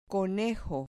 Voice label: "conejo", Spanish